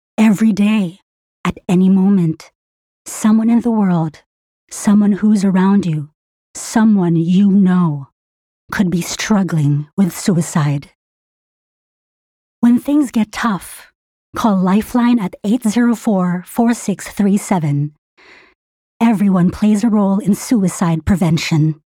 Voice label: English (Concerned, Hopeful)